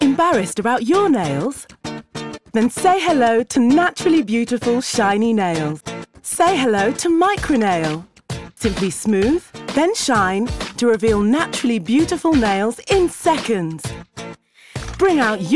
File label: Commercial